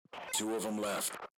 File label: enemy, radio